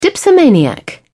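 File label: "dipsomaniac", British English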